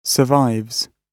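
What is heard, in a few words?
"survives", British English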